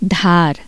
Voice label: Hindi knife edge

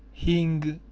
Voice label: "hing", Armenian

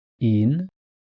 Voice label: "un" Welsh

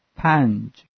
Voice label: "panch", Farsi

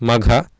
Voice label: "magha", Sanskrit

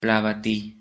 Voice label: "plavati", Slovenian

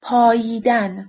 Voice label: "paayiidan", Persian

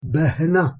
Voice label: "behna", Urdu

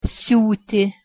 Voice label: "siuti", Lithuanian

